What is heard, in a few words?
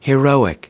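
"heroic", American English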